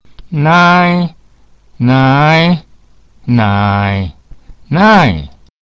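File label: "nai", Mandarin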